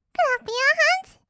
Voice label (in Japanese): "クラップユアハンズ", kawaii voice; ASMR; 1200 kawaii voice!!; 音ゲー